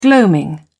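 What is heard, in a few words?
"gloaming", British English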